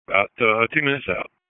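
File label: FIRE OPS, radio; wake; p25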